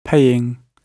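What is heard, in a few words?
"paying", British English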